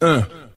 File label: "uh", male